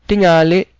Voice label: maybe, cebuano